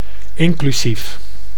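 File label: "inclusief", Dutch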